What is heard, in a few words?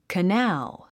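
"canal", English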